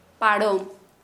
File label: "strong", Kharia